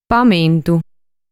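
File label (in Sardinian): "Pamentu", Sardinian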